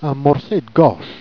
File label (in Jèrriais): "morce d'gâche", Jèrriais